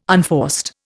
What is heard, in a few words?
"unforced", Indian